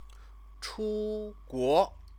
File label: "chuguo", Chinese; 出 国; go abroad; verb-object; Chinese lessons